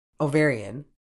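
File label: "ovarian", medical English, British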